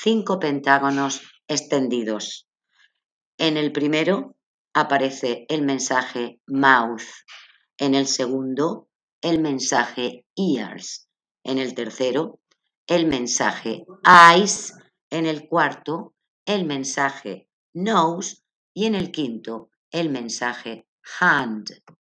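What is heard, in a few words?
count to five in french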